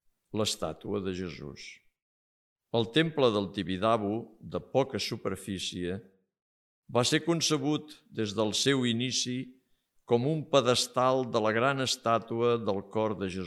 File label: Audio Catala; TIBIDABO; audioguies